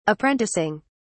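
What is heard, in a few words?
"apprenticing", British English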